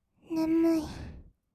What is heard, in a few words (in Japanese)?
"ねむい", kawaii voice; 1200 kawaii voice!!; 色々なワンショット